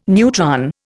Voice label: "neutron", Indian